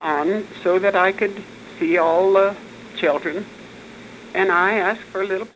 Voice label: Oral history with 77 year old female Grand Junction Colorado; american english dialect